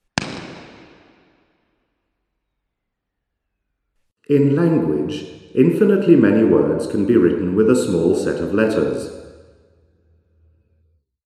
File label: Male voice